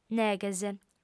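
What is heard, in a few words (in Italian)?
"neghes", lingua sarda, fem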